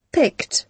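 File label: "picked", British English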